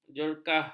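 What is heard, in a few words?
"jerkah", Malay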